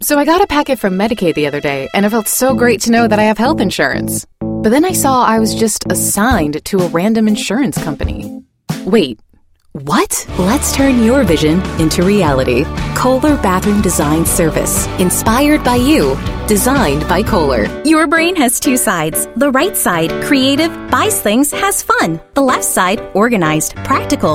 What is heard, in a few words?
Commercial